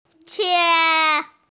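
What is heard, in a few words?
"qie1", Mandarin Chinese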